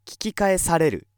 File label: male